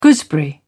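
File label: "gooseberry", British English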